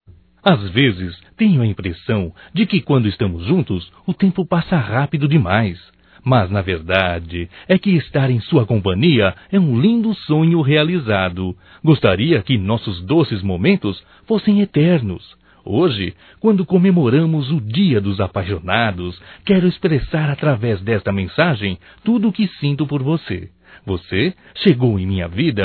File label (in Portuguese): DIA DOS NAMORADOS, Só Voz, masc; Telemensagens; HOMENAGENS